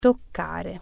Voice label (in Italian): "touch", Italian